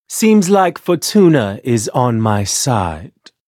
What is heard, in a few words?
victory, en; Cookie Run